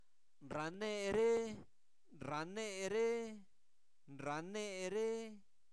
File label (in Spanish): Zapoteco Albarradas, santo domingo albarradas; INALI; verbos; platicamos